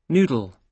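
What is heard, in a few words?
"noodle", British English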